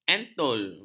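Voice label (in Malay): "antul", Malay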